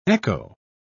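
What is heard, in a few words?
"echo", English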